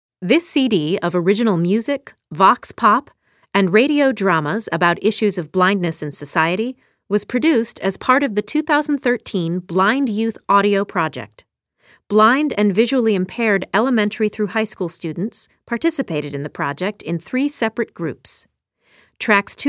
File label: Opening Narration